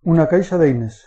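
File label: toolbox desc, Catalan; tuxpaint-stamps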